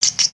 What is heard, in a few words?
Pet Bunny Happy; Lego; build mode